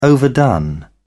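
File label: "overdone", British English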